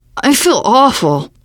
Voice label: going to die, teengirl, voice